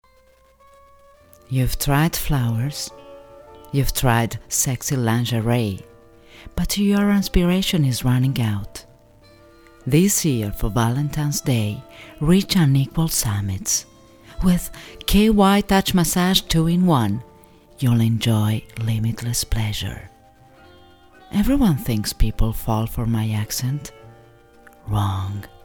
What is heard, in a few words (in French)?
Voix ang